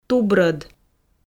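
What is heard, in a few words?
"тубрӧд", Komi, female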